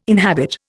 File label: "inhabit", Indian